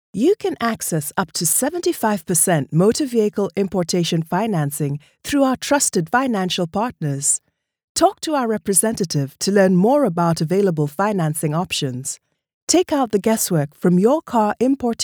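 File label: english african interactive voice response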